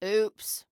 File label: "Ooops", Female